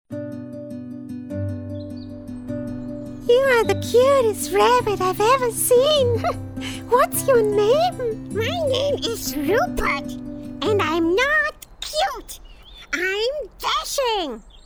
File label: Cartoon voices